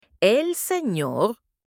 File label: man in spanish